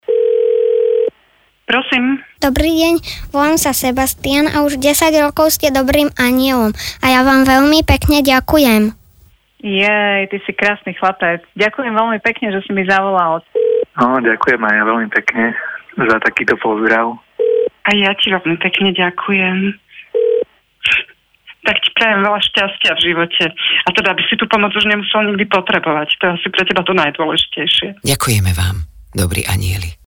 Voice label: radio